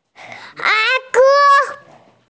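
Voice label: "nursery rhyme", hearing impaired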